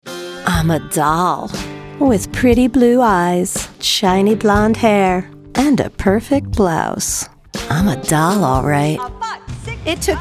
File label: Commercial